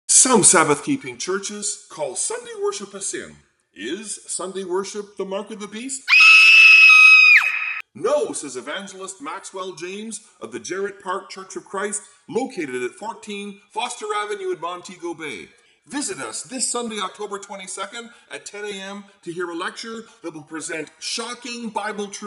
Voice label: radio advertizing scream; Jamaica; low